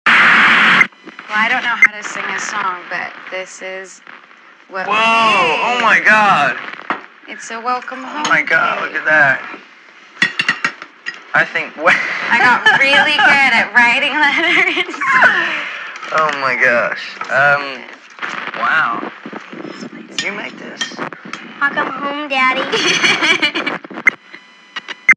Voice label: WIRE-TAP; MUTORESEARCH FILE BROWSER; FORD BRODY; BRODY RESIDENCE